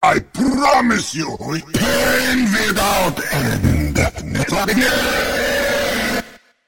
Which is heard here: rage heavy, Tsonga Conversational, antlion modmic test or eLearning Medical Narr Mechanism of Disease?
rage heavy